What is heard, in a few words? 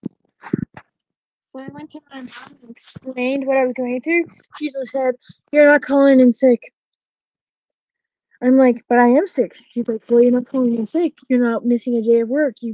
voice message